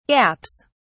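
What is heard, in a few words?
"gaps", English, British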